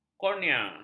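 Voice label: "kornea", Malay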